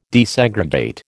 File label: "desegregate", American